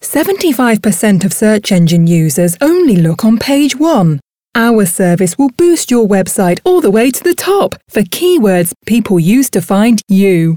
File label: Explainer Video